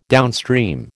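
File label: "downstream", American English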